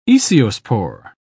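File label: "aeciospore", British English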